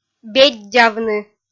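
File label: "беддявны", Komi-Zyrian, female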